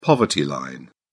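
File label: "poverty line", British English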